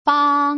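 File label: "fang", Mandarin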